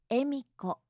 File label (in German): "emiko", japanische maedchennamen